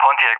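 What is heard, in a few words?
"Pontiac", English speech; CallSign; Allied Flight; DCS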